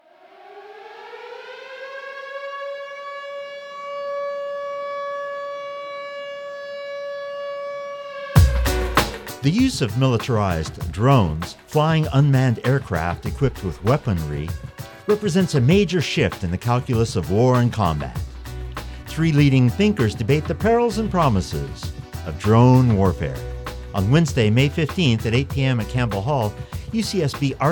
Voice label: PSA; Drone Warfare